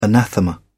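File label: "anathema", English, British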